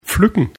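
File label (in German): "pfluecken", German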